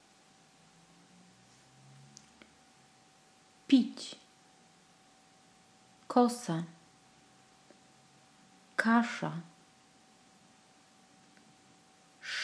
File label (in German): Polnisch Hoerverstehen